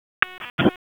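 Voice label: Police Northeast District Dispatch; Raleigh; wake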